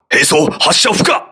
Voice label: weapon destroyed, voice message, crew ships japanese